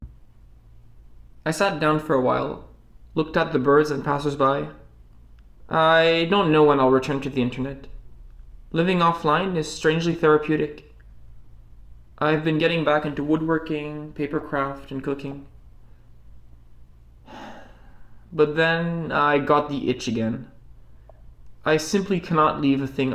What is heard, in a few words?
voice, narration